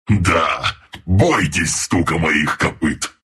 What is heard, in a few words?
level up, ru; dota2